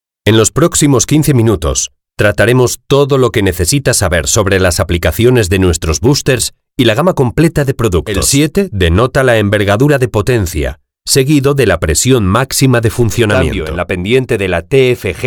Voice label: spanish e-learning; professional voice actor from Spain

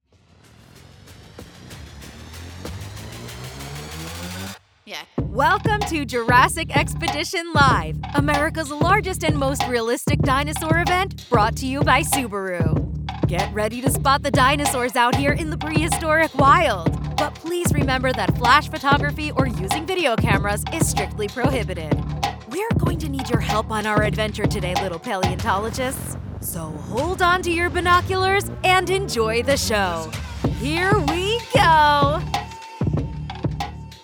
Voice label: english american announcements

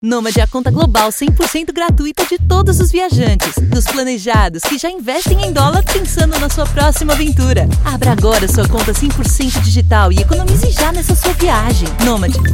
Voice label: portuguese brazilian announcements

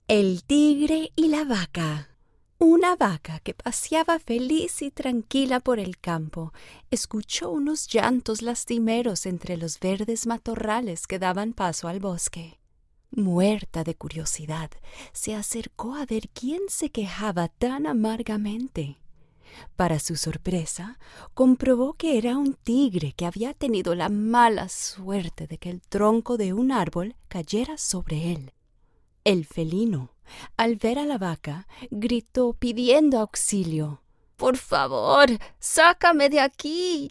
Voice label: Spanish Colombian animation

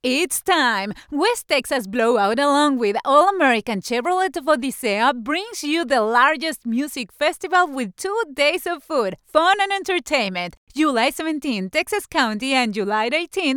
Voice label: english promos

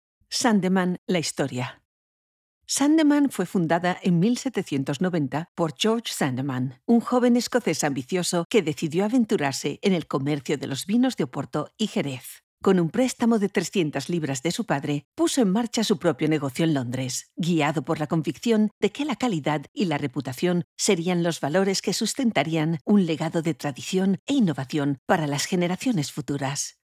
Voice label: spanish audio guides

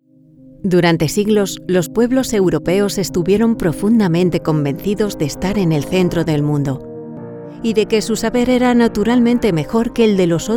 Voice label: spanish documentaries

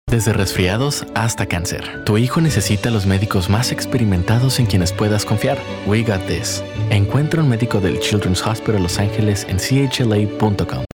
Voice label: spanish latin american medical narration

